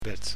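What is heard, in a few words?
"bed", Dutch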